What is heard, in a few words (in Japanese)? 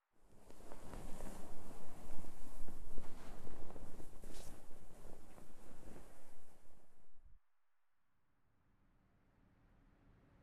安眠パート、ウィスパー、安眠導入